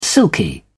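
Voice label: "silky", British English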